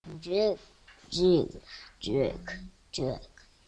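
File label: "jig", spoken word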